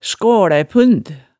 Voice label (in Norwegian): "skaalæpund", hemsedalsmaalet